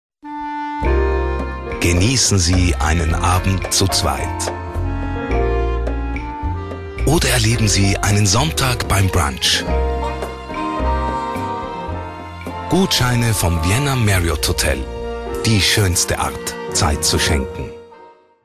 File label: male german voice over talent sales